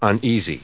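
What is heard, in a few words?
"uneasy", English, US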